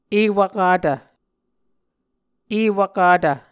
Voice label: "ewvkatv", muscogee

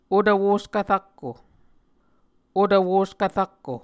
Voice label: "otvwoskv rakko", Muscogee

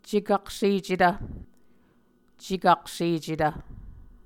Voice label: "cekaksecetv", muscogee; Mvskoke Words with Voicing Consonants; A Dictionary of Creek/Muskogee; SIL International; Onvkv Ohhvyayices

